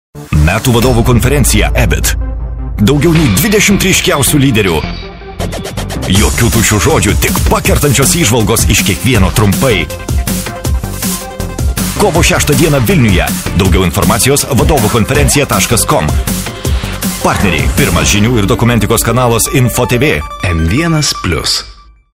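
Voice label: lithuanian male commercial; voice demos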